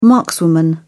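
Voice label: "markswoman", British English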